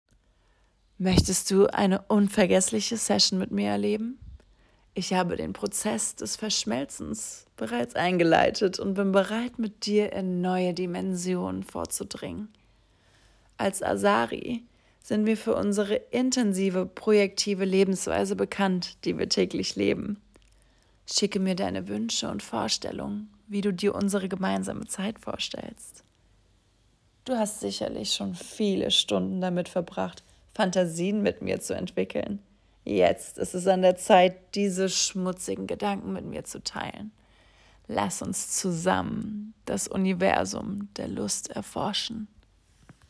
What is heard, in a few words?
deutsch; Liara